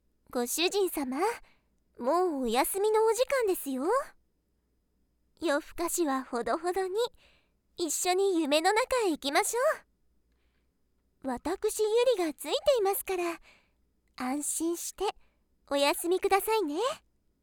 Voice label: おやすみボイス

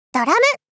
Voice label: ドラム 少女×中音域

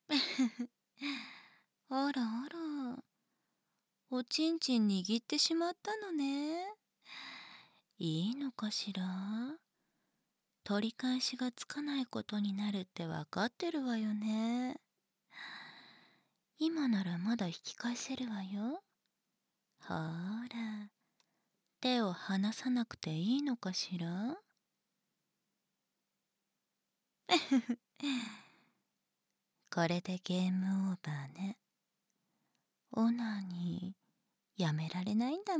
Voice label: ささやき誘惑敗北; BADEND

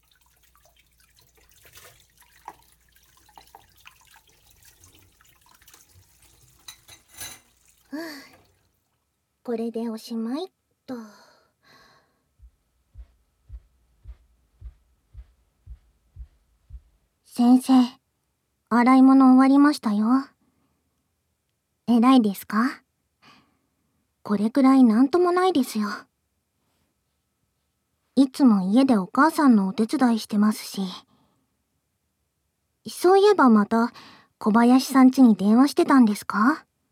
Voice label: マッサージ, ASMR; 小林さんがマッサージしてあげますね。

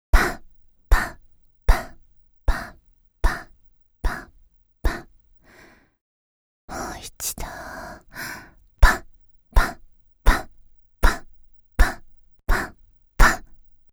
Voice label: （ささやき）

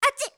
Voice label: 「アチッ」, 驚く少女

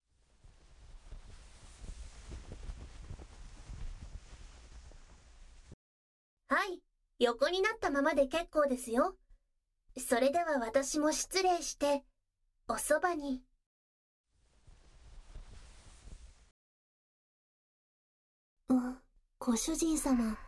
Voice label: メイドさんの囁き＆吐息; 4◆メイドさんの囁き＆吐息＆手コキ; 効果音入り

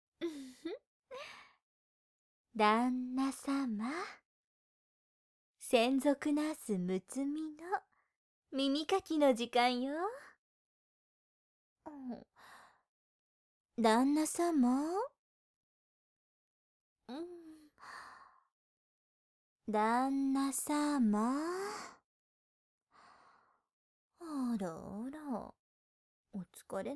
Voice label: 耳かき（左耳に反転）

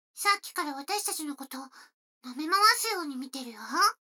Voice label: 女の子台詞, ASMR

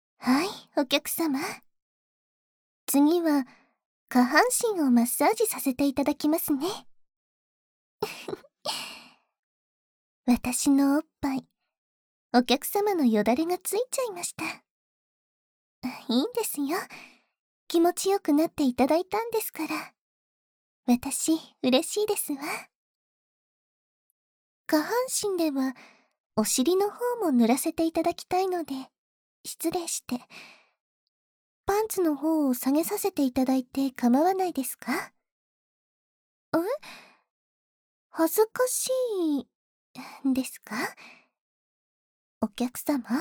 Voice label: 下半身もぬるぬるマッサージ（SEなし）, ASMR

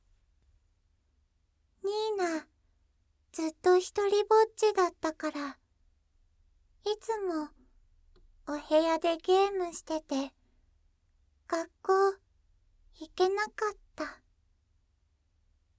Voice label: にいなの自己紹介

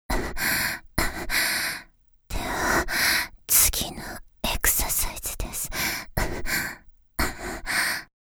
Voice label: 「はぁ、はぁ、では次のエクササイズです、はぁ、はぁ」（ささやき）; ネクストパーツ（エクササイズ間）

